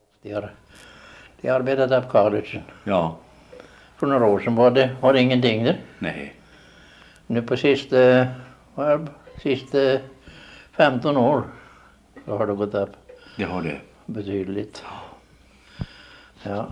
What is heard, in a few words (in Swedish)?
dialekter, Svenska sagor; Folkminnen